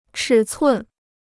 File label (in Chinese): "尺寸", Chinese